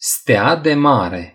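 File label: "starfish", Romanian; gcompris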